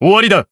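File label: finish, Japanese; joker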